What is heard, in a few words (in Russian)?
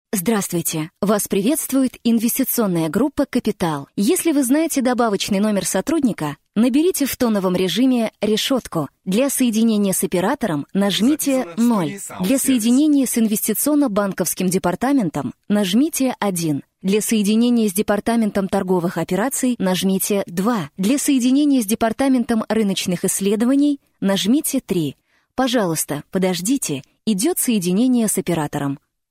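phone system, Женщина, Среднего возраста, Русский, Бархатный, Средний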